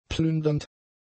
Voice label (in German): "plündernd", German; adjectives